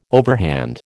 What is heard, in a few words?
"overhand", American